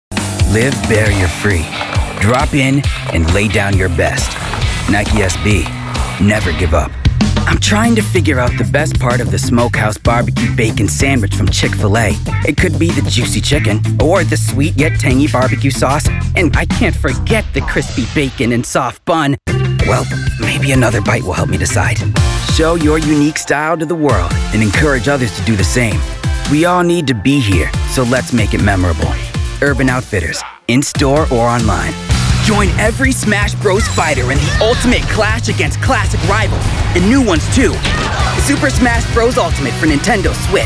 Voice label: Male Commercial

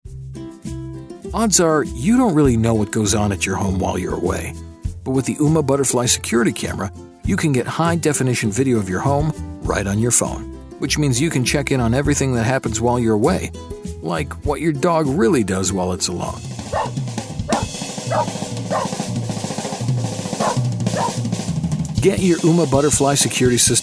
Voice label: Male Conversational

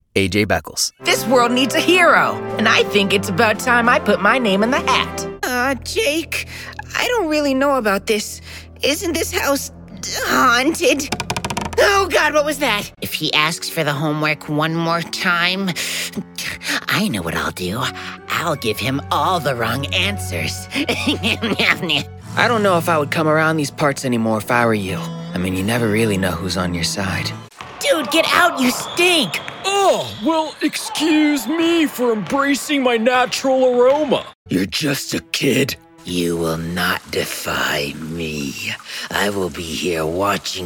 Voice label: Animation

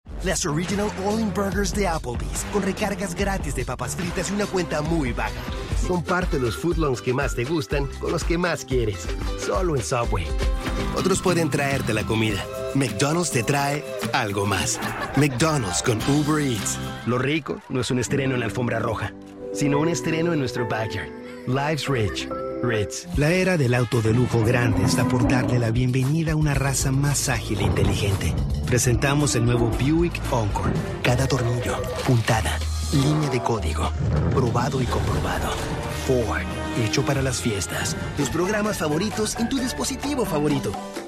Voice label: Male Commercial Spanish

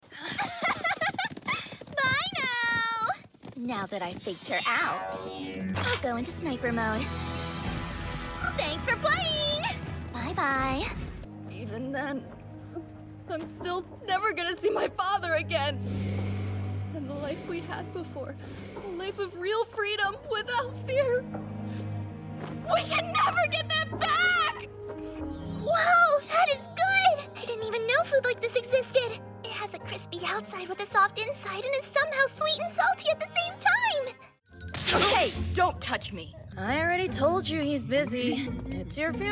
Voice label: Non Binary Animation

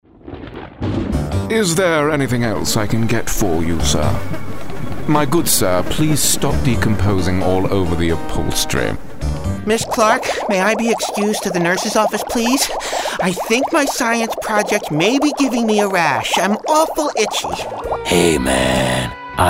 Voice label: Male Animation

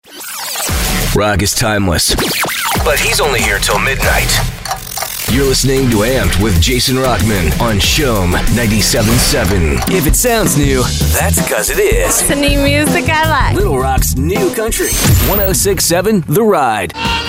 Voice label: radio

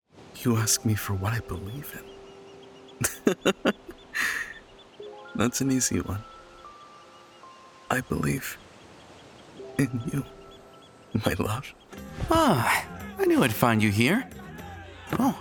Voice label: Character

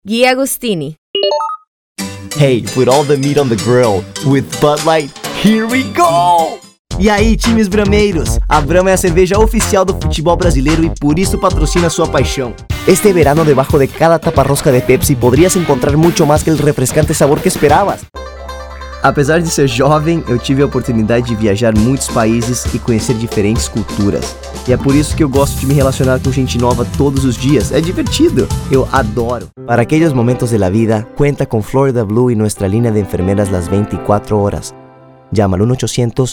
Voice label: Male Commercial Mixed

